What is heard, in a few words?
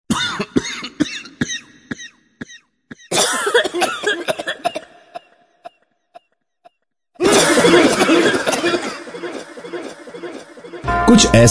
Hindi radio cough